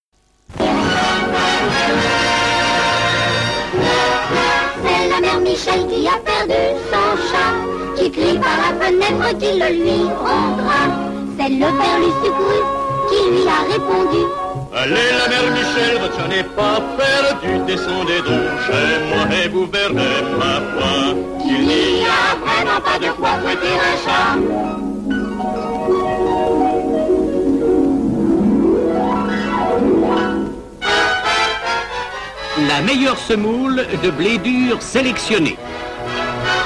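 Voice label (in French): spot, spots radio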